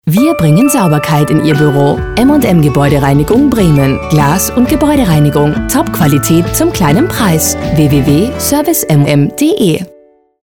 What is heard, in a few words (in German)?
gebäudereinigung Mit Musik